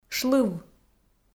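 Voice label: "шлыв", Komi, female; kpv audio dict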